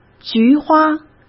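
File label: "菊花", Mandarin Chinese; chinese flashcards; hsk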